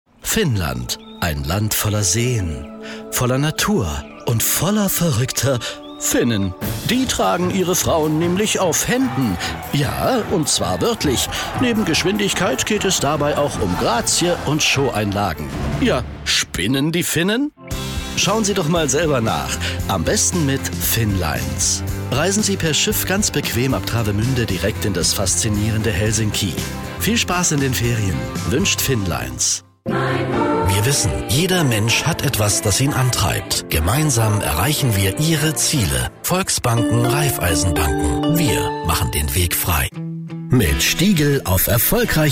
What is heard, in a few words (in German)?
Dynamisch